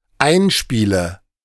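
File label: "einspiele", German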